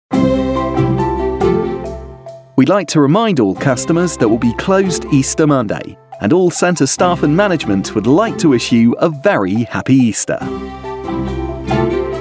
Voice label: Easter Male